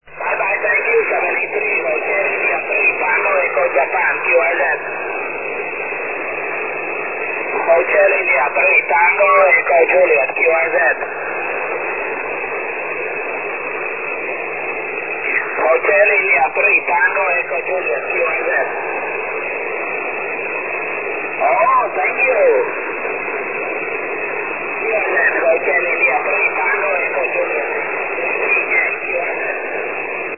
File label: 6m ssb